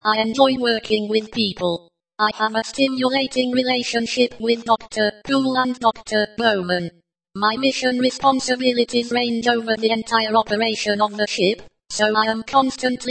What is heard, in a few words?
example espeak